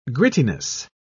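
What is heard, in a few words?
"grittiness", British English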